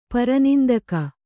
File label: "ಪರನಿಂದಕ", kannada